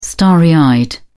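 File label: "starry eyed", British English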